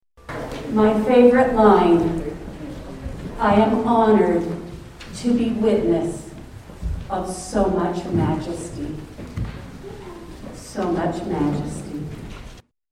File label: Narration